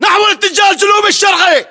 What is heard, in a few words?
direction relative sthwest, localized english battlechatter